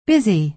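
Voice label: "busy", American English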